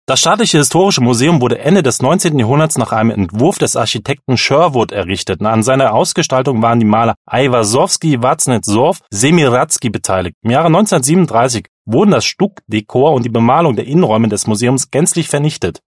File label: german male